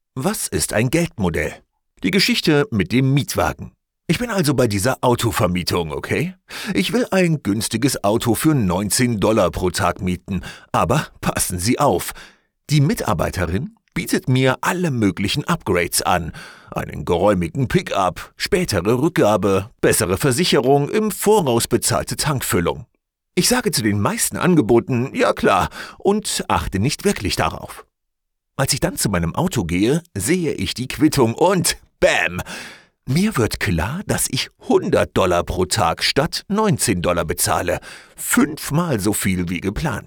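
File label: Audiobook, male; 100M MoneyModels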